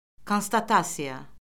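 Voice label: "konstatasiya", Azerbaijani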